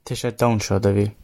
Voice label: dance, gwentian